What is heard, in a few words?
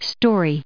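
"story", US English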